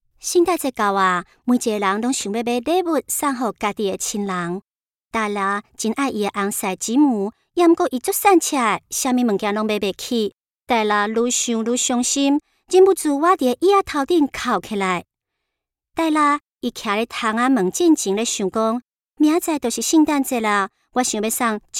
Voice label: Commercial